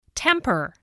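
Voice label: "temper", English word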